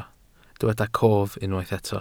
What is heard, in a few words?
"cof", Welsh